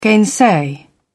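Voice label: "gainsay", British English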